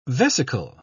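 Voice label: "vesicle", British English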